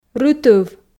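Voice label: "рытыв", Komi, female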